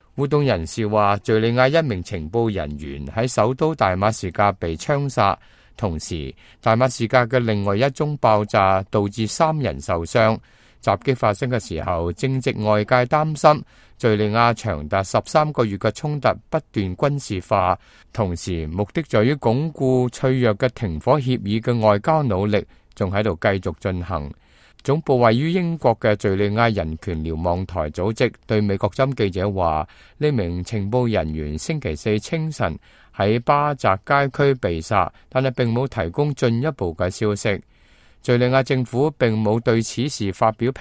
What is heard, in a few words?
cantonese, news